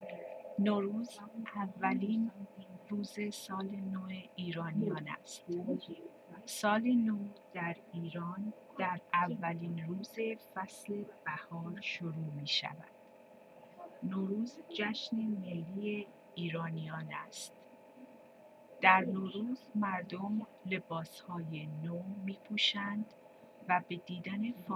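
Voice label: Persian listening activity norouz; Basic Persian 7.4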